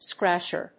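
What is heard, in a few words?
"scratcher", English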